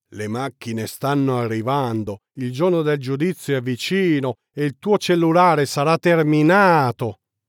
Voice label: Ringtone, italian